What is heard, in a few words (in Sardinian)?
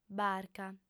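"barca", female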